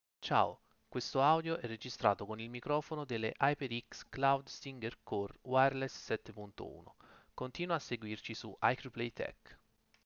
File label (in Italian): Registrazione con mic HyperX